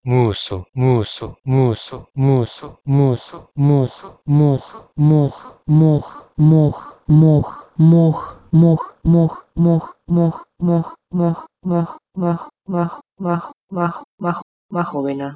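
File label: Proto-Indo-European muso to Bosnian mahovina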